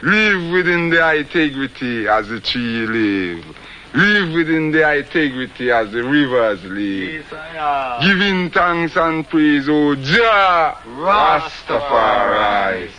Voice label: live within the integrity, Rastafarian